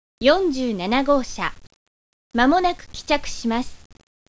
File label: gps voice